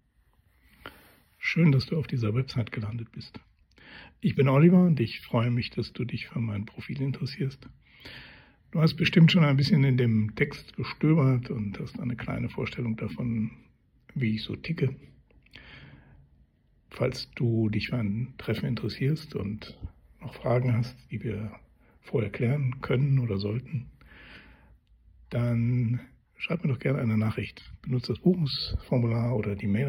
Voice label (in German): Escort Mann Audiovorstellung